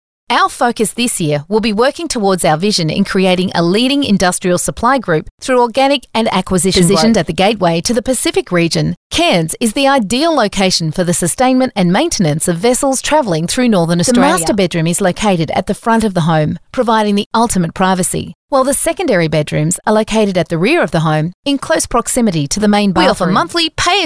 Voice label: Corporate; Voice Overs